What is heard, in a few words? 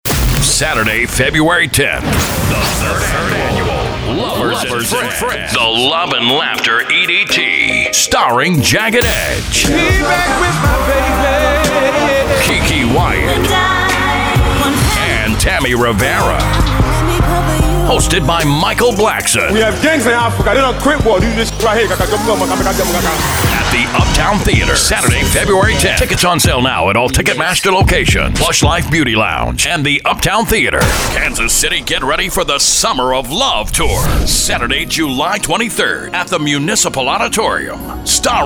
VOICE OVER